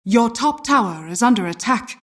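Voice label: announcer tower attack top; dota2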